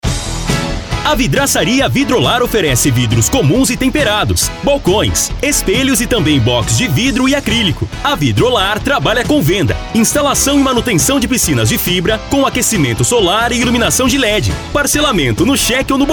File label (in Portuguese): spot propaganda vidracaria voz; vidrolar